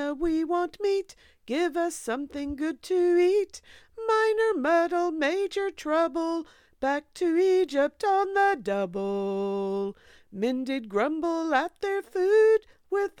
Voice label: murmur mumble mope mourn; the story of gods people wandering in the wilderness